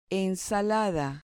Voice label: "ensalada", Spanish